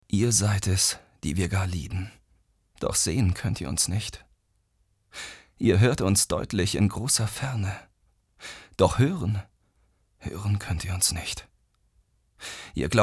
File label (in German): traurig, maenner